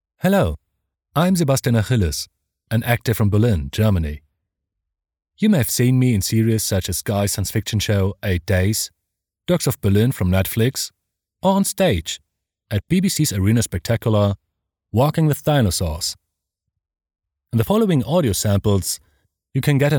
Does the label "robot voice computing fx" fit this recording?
No